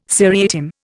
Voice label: "seriatim", Indian